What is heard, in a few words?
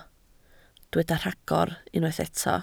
"rhagor", Welsh